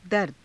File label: "darda", Hindi